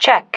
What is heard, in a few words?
"check", female